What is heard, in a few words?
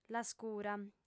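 "lascura", Sardinian, female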